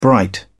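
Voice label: "bright", British English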